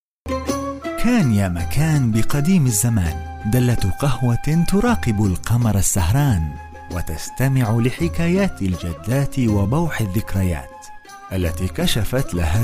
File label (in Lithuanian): (VYR) Arabų balsas; vyras